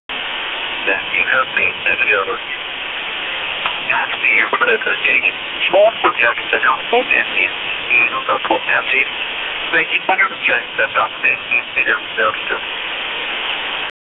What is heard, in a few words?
an old 1980s air traffic, atc communication